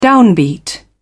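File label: "downbeat", British English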